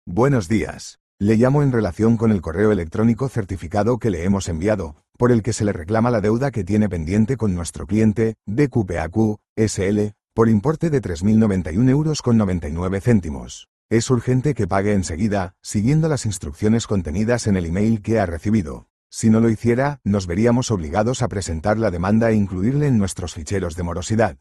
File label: audio call